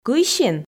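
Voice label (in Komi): "кыйсин", Komi, female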